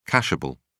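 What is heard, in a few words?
"cashable", British English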